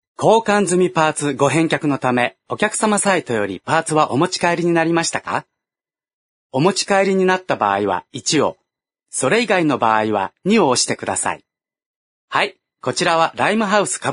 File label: IVR Japanese Male